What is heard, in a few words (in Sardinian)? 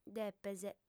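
"depes", female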